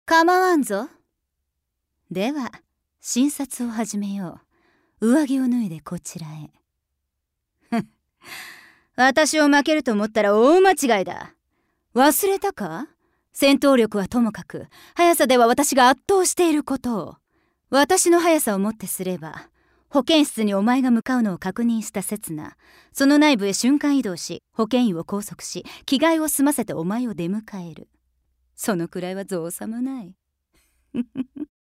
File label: Adult